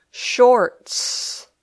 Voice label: "shorts", English